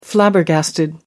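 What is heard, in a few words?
"flabbergasted", British English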